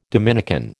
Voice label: "Dominican", American

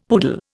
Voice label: "poodle", Indian; Dictionary

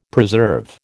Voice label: "preserve", American

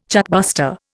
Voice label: "chartbuster", Indian

